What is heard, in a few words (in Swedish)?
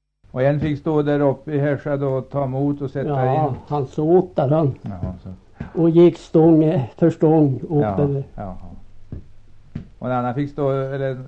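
Högsjö Ångermanland, dialekter, svenska sagor; Folkminnen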